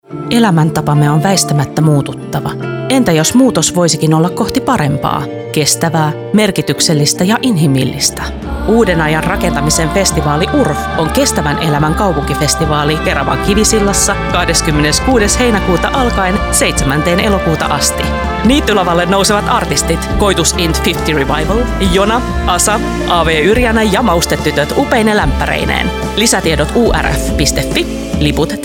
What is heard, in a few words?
finnish commercial